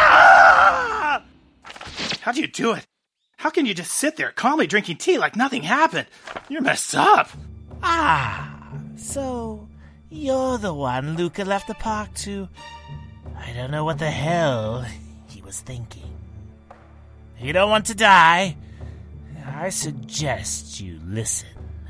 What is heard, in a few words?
character demo